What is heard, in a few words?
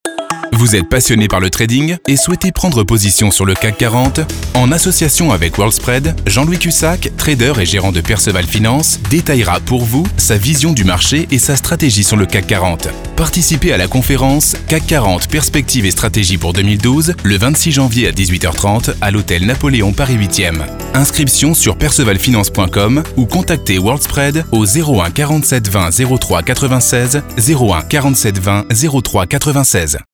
french